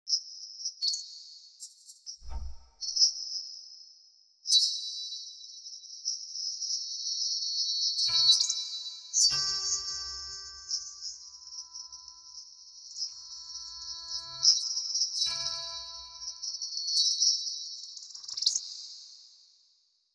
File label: Dialog